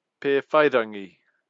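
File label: "pewhairangi", Māori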